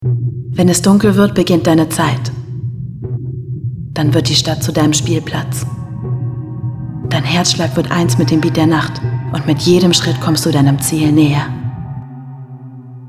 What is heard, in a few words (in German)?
TV Werbung; Gisa